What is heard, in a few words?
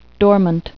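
"dormant", English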